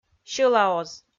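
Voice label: "сьӧлаоз", Komi, female; kpv audio dict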